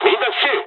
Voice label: dialogs japanese; War Thunder